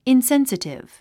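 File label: "insensitive", English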